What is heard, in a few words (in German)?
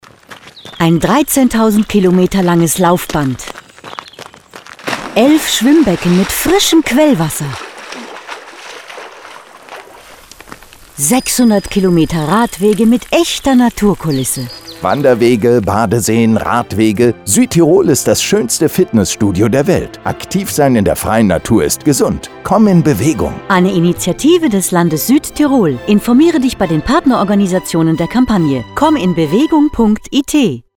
spot